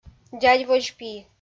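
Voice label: "дядьводз пи", Komi, female; kpv audio dict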